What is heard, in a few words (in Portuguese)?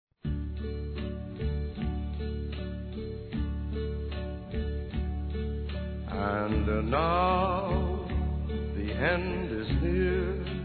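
ANIVERSÁRIO DA VOVÓ, Masculino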